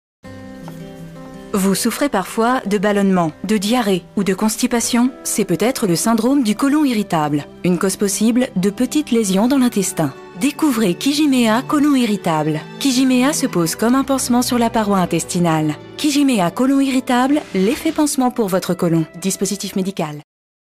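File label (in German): French, Werbung, Neutral; Fremdtake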